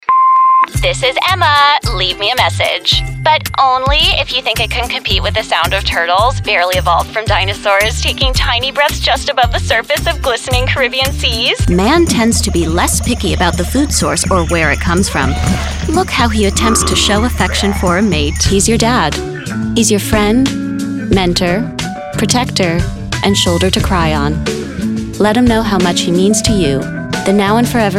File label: Commercial